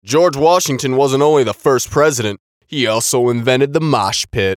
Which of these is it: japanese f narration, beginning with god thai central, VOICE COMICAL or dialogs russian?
VOICE COMICAL